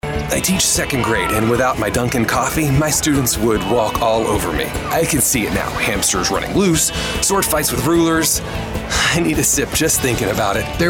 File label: commercial